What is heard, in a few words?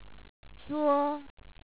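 "zuo1", Chinese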